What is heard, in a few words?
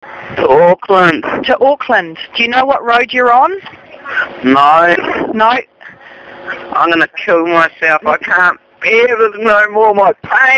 hoax call